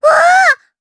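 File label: Vox Sur Japanese; Lilia; kingsraid; jp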